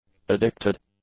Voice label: "addicted", English; adjectives